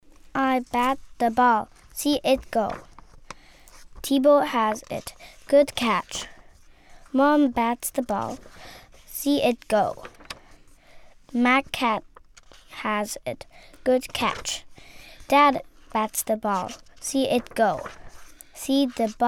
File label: Reading Children's Book, Audiobook, English US, hell, fein, zart, Jung (18-30); Germany; LOFT VOICES